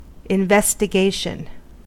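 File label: "investigation", US English; pronunciations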